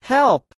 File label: help man en